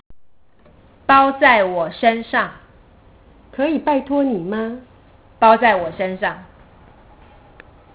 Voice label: Chinese